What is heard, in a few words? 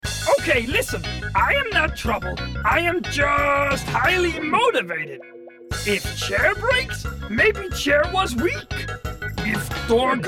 Gaming Chaotic Alien